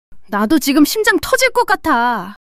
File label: fluster, monologue